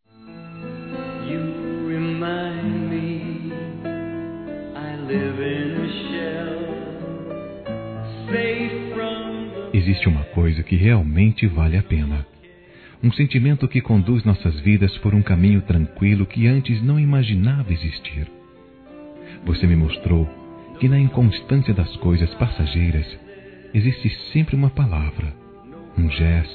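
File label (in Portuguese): Namorada Estavel Masculino; Aniversários; ANIVERSARIO romantico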